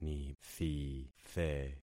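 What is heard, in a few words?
"nithithe", concatenated syllables; Affixing experiment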